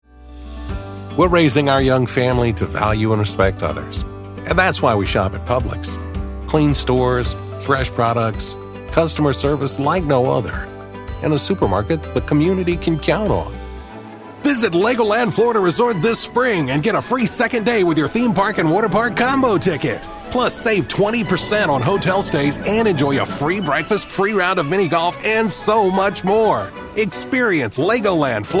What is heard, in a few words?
Commercial